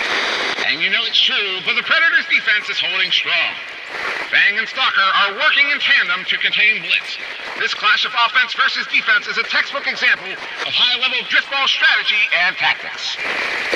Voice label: Transmission Echoes